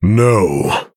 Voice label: Male Human ERROR GENERIC